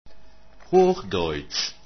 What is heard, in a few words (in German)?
hochdeutsch